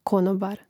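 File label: "konobar", Croatian; Mrežnik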